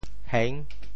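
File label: "heng6", Teochew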